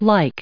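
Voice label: "like", US English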